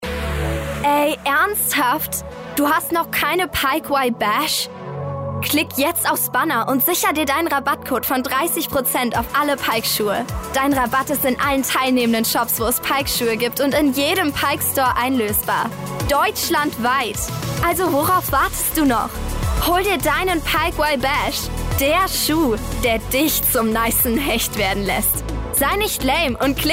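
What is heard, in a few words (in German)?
werbestimmen für werbung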